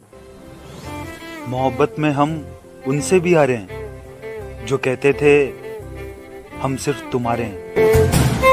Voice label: Shayari Ringtone